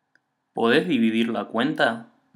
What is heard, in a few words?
can you split this bill Spanish